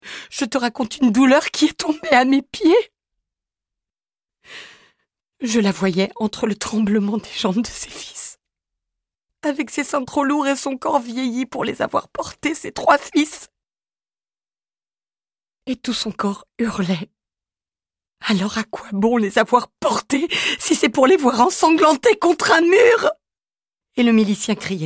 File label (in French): narration incendie